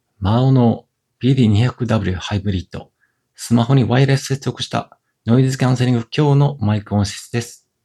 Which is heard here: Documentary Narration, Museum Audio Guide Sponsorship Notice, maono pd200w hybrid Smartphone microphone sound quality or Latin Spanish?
maono pd200w hybrid Smartphone microphone sound quality